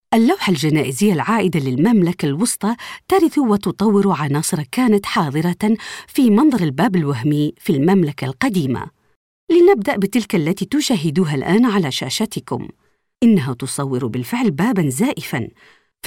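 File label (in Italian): arabo, Demo Voci Spot Pubblicitari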